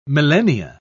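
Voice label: "millennia", British English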